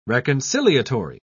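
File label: "reconciliatory", British English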